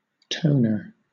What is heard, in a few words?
"toner", UK